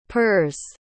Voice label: "purrs", pronounce